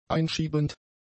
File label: "einschiebend", German; adjectives